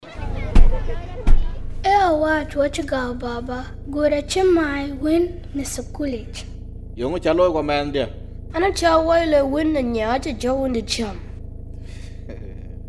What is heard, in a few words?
Radio Dinka; CALD